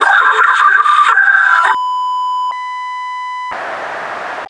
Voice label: fire scream, metropolice; npc